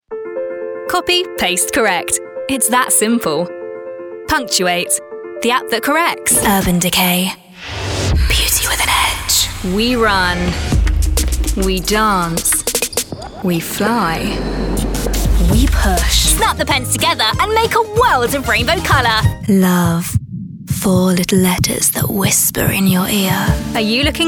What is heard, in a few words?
UK English Commercial